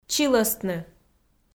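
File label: "чилӧстны", Komi, female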